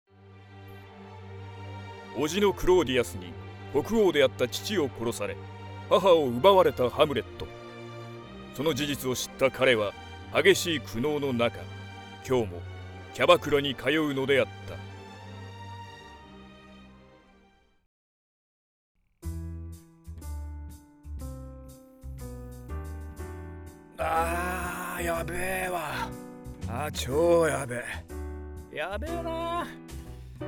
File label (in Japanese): 一人芝居（セリフ）, 音楽、SEあり; ボイスサンプル; ハムレット